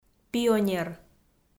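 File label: "пионер", Komi, female; audio dict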